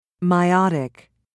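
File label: "meiotic", British English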